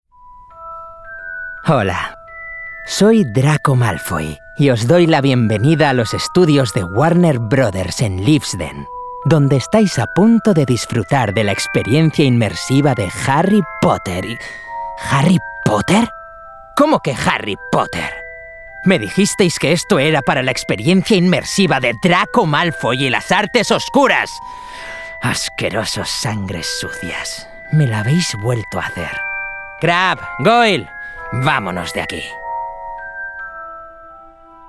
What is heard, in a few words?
Conversational, bold, impressions, Natural, Spanish; Harry Potter